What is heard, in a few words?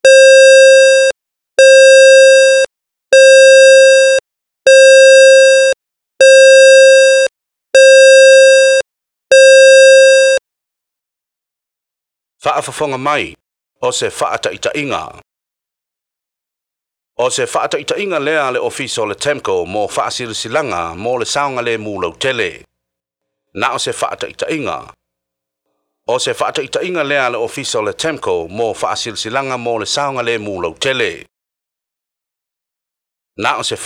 SIREN MESSAGE MONTHLY TEST; TEMCO